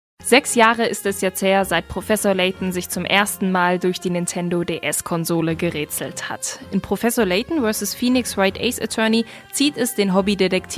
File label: German Female, Kurumsal, Reklam, Eğitim; Kadın; Almanca